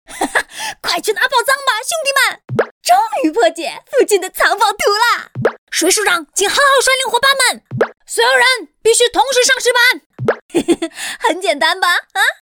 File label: Mandarin China Character Voices Game; Black Desert